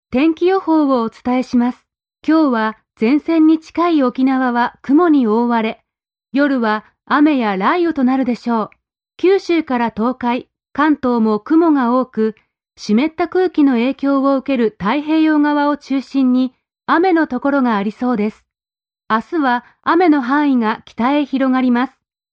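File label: Japanese, female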